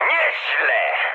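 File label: trick mood high, speech Polish; War Thunder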